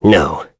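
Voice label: Vox Deny, voices heroes English; kingsraid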